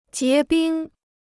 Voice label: "结冰", Chinese